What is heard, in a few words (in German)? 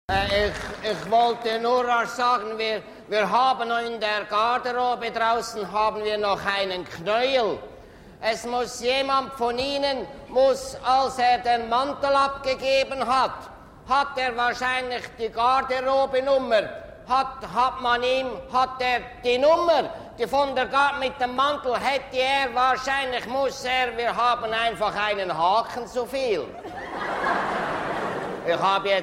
Schweizer Hochdeutsch